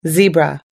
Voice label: "zebra", US English